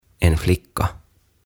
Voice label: "En Flicka" "Tyttö"

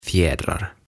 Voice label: "Fjädrar" "Sulat"

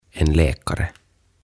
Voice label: "En Läkare" Lääkäri

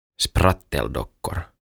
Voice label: "Spratteldockor" "Nuket"

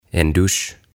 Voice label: "En Dusch", "Suihku"

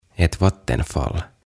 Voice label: "Ett Vattenfall" "Vesiputous"

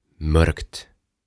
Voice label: "Mörk" Pimeä

